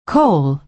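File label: "coal", American English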